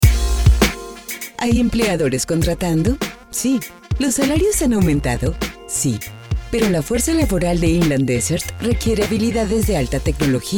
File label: Spanish radio; General Audio Ads